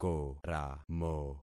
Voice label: "koramo", concat syllables; Affixing experiment